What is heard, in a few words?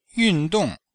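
"yùndòng", Chinese; Cours de Chinois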